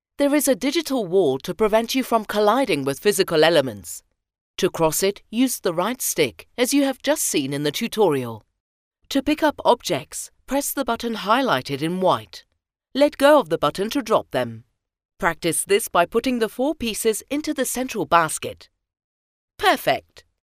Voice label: locutora inglesa english voiceover, locutora británica